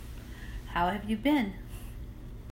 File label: How have you been USA